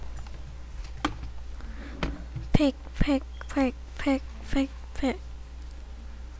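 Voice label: "pick", spoken word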